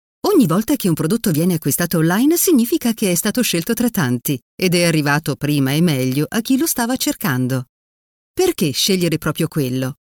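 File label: Italian, female, e-commerce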